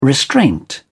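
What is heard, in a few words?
"restraint", English, British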